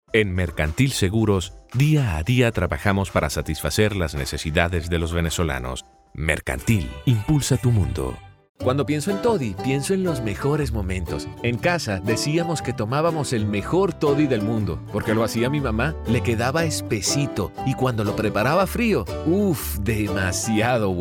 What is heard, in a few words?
spanish venezuelan commercial